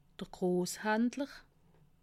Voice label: "grosshandler", alsace

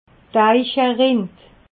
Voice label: "eicherind", alsace

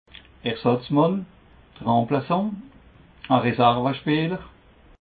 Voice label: "ersatz mann", alsace

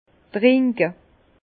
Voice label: "trinke", Bas Rhin; vin; boire

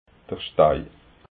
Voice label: "stei", alsace

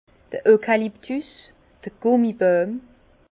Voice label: "eukaluptus", Bas Rhin; eucalyptus; vin

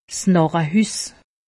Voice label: "narrehus", alsace; medecine

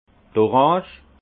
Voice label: "orange", alsace; vin

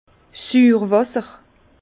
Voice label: "suurwasser", Bas Rhin; vin; vin amer de suresnes

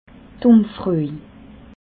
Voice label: "umfroj", alsace

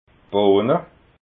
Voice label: "bohna", alsace

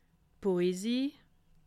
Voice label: "poesie", alsace

